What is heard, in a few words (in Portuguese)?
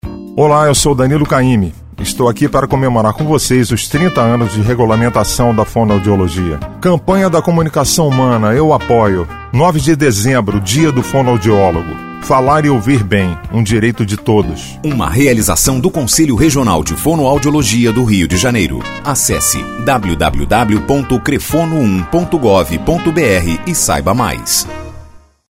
Spot